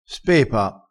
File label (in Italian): "spepa", crespinese